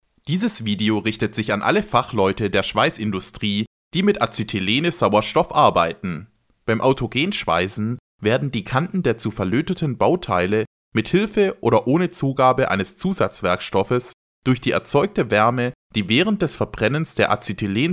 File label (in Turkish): Almanca